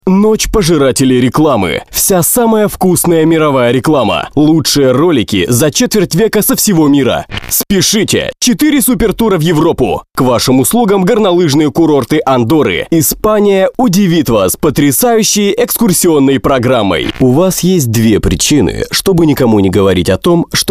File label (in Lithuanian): Rusiškas balsas, vyras